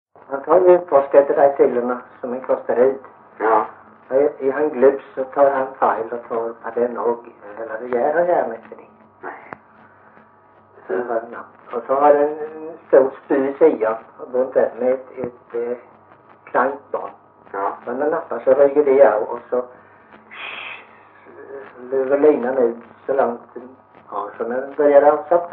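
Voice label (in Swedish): Morup Halland, svenska sagor dialekter; Folkminnen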